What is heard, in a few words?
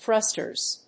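"thrusters", English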